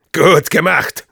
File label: good job, de; "Gut gemacht!"; Spy Sprachkommandos